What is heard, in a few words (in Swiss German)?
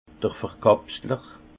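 "verkapsler", Haut Rhin; vin; capsuleuse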